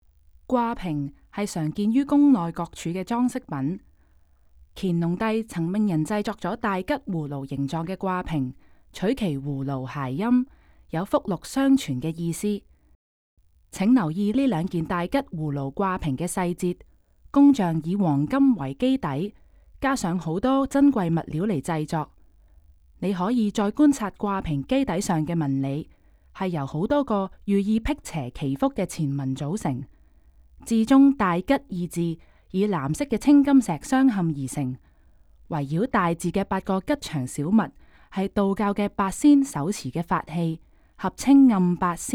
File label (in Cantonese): Can VO; from dawn to dusk